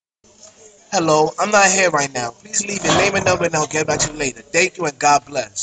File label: voice message